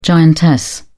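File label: "giantess", British English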